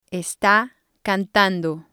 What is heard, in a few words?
"esta cantando", spanish